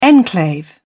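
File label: "enclave", British English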